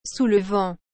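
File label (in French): "sous le vent", French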